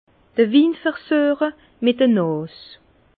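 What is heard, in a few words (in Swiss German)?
"winversueche", Alsace Bas Rhin; vin; goûter le vin avec le nez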